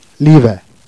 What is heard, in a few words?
"hive", jerriais